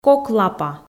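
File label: "кок лапа", Komi, female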